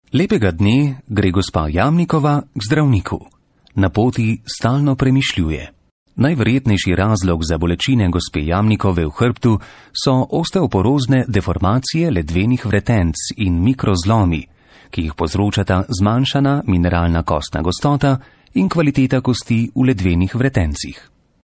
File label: Slovenian